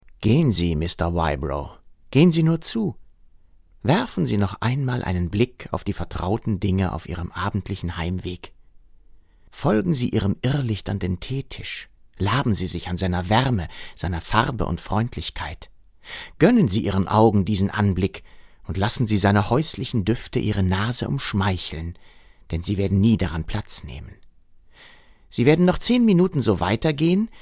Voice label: off hoerbuch; die haende des mr. ottermole

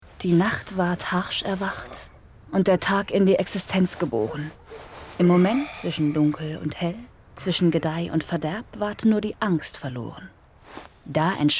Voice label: Werbung Kaffeegedicht

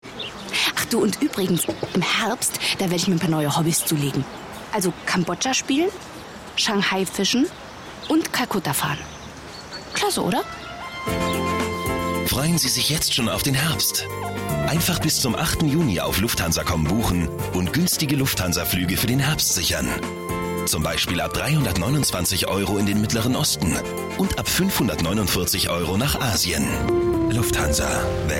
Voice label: Spot; lufthansa

